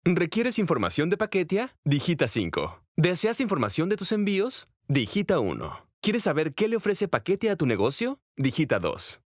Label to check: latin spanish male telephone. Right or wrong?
Right